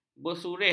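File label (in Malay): "bersurih", Malay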